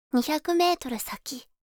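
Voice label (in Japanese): 200メートル先, カーナビ案内音声; カーナビ(で使えるかもしれない)案内音声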